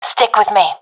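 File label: request follow me, professional female; Counter Strike Model Pack; CS:GO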